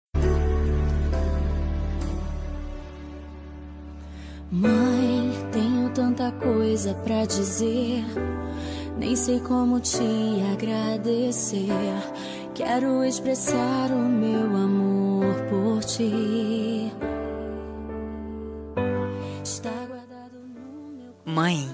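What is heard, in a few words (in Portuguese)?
birthday MAE female, telemensagem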